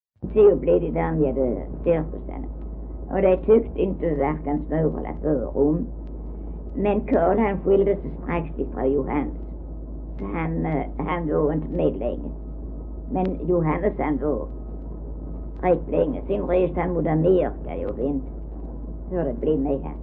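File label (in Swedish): Äsphult Skåne, Svenska sagor dialekter; Folkminnen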